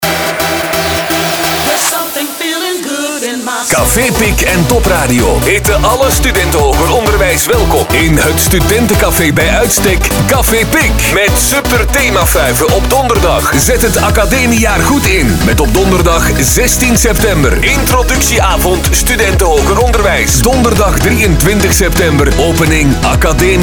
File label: party commercial; cafe pick